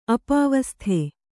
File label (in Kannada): "ಅಪವಸ್ತು", Kannada